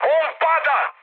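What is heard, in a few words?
Serbian aircraft destroyed mood high; War Thunder; speech srb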